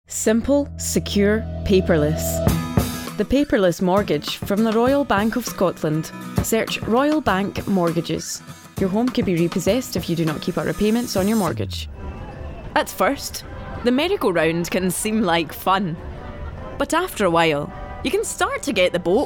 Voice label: commercial VO showreel